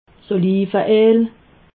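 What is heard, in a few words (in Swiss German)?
"olive el", Alsatian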